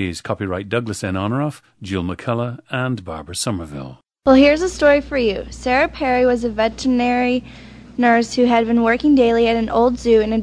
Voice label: missouri, dialects